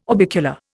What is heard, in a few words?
"orbicular", Indian